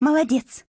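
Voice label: appreciate, Japanese; AK74M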